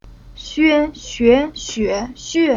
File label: "Xue", Chinese